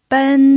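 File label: "ben", pinyin, first tone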